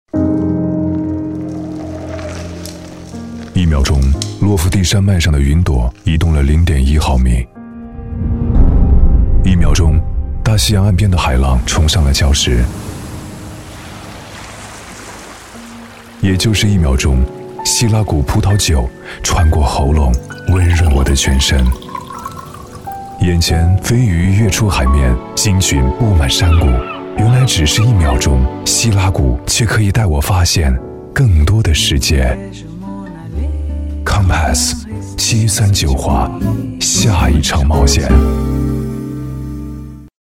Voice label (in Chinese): 男 宣传片 温暖 低沉 红酒; 希拉谷红酒